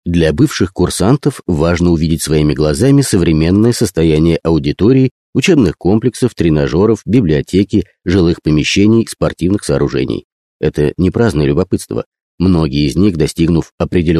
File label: russian male